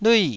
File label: "lui4", Taiwanese syllable